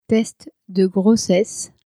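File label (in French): "test de grossesse", French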